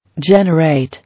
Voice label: "Generate", English